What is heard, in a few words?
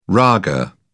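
"raga", British English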